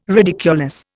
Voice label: "ridiculous", Indian

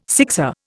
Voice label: "sixer", Indian

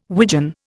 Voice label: "widgeon", Indian

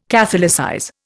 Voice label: "catholicize", Indian

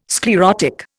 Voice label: "sclerotic", Indian

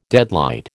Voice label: "deadlight", American

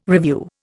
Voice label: "revue", Indian

